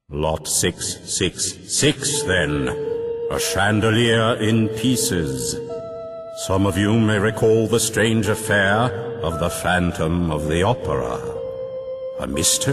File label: Deep Character engl; Deep Charakter